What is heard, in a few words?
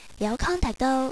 Contact, Cantonese girl; ICQ